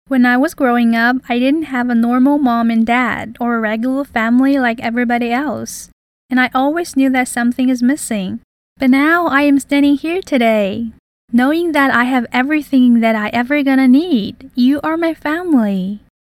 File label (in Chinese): 美式英语甜美女声